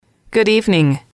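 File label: good evening night, English, female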